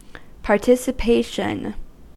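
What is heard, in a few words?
"participation", US English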